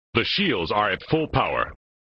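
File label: "The Shields are at full power", male voice; Worf; flipper; sttng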